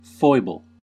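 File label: "foible" US English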